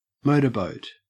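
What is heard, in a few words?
"motorboat", Australian English; pronunciations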